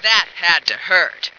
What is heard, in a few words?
"had to hurt", female